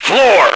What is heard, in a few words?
floor, radio, male